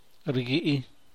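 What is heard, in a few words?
"rgudie", San Mateo Macuilxochitl Zapotec; fry, toast, grill; freir, tostar, azar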